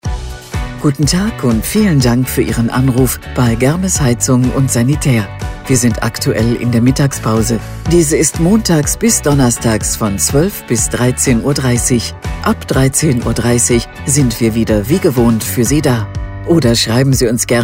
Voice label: Mittagspause, Telefonansagen, Ansage Mittagspause; Sanitär & Heizung (SHK); Germes

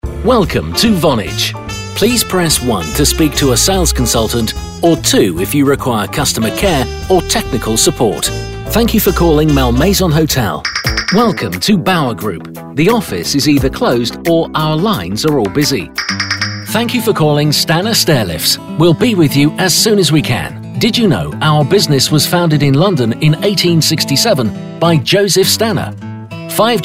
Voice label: telefonansagen sprecher uk; Native Speaker

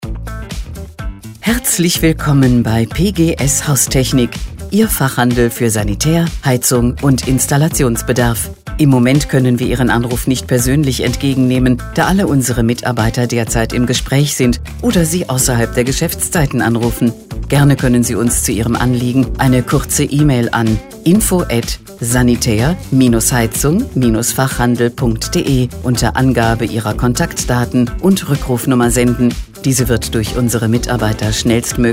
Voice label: Ansage mit Disco Funk; HSG

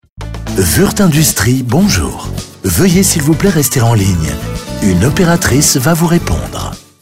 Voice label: telefonansagen; wuerth

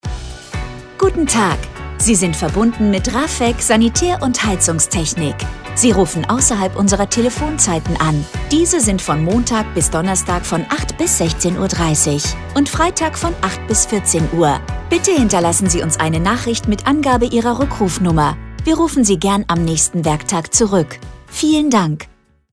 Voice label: ausserhalb, Anrufbeantworter Ansage außerhalb der Geschäftszeiten; Telefonansagen für Sanitär & Heizung (SHK); Sanitär & Heizungstechnik

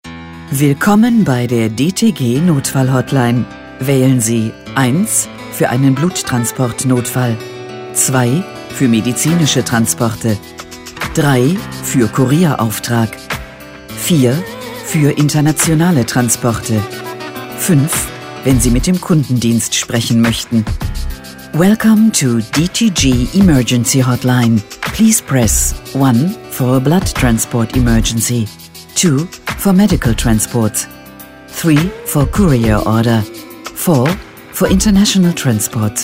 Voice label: Telefonansage Notdienst

